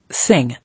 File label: "Sing", English